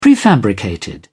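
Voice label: "prefabricated", English, British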